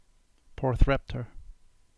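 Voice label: "Carbis Bay", Cornish; settlement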